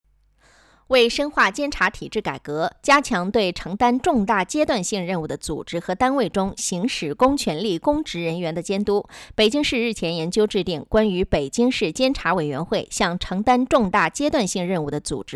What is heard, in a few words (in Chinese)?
专题成熟大气纪录片政府汇报专题报告